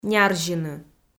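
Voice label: "нярзьыны" Komi-Zyrian, female; kpv audio dict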